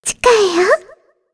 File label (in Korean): Vox Happy, kr; voices; heroes; Shea; kingsraid